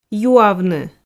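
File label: "юавны", Komi, female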